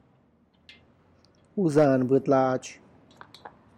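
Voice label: Tlacochahuaya Zapotec; clutch of baby turkeys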